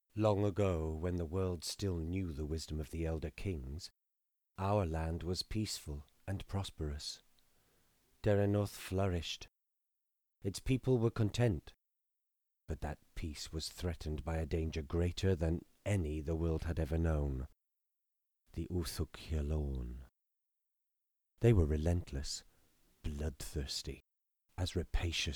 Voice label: Fantasy Game Narrator